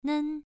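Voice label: "nen", Mandarin Chinese syllable, first tone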